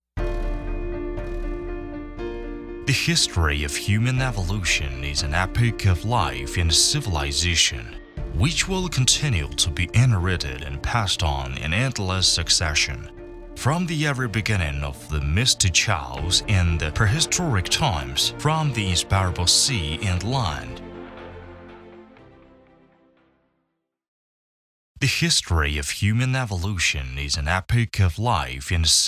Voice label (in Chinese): 英文解说, 基因链, 大气